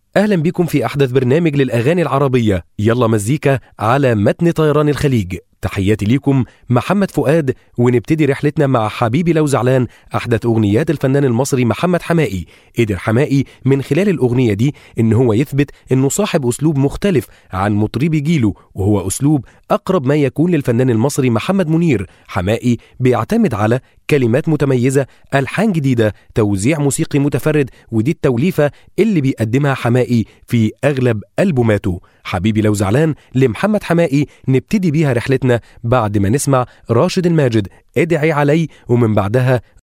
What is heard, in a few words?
arabic male; dictor